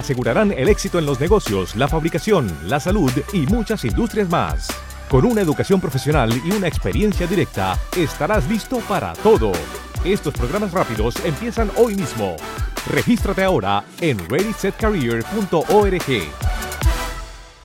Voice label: Inland radio Spanish